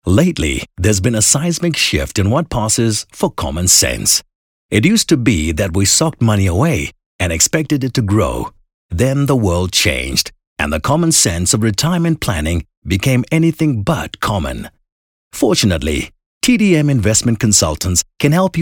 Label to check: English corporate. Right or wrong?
Right